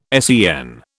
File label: "SEN", British